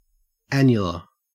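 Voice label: "annular", Australian English; pronunciations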